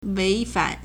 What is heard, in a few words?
"wei2fan3", Chinese